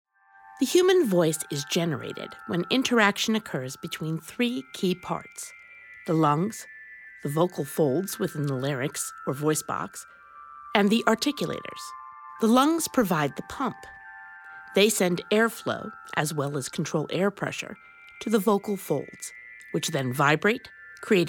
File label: Medical Narration